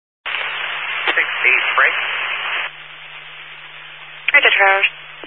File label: Highway Patrol, Phoenix Metro West Area, scanner